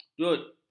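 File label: "jut", Malay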